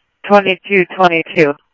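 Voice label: Lakes Sheriff Lake Patrol, scanner audio trunk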